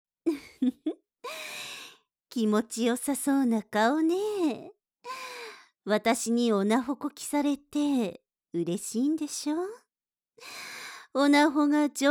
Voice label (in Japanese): お姉さん 色気た～っぷりのお姉さん, ASMR